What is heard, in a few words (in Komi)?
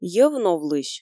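"йӧв новлысь", Komi, female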